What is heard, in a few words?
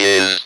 "IS", Speak and Spell